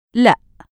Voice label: "laa", Egyptian Arabic